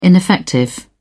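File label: "ineffective", British English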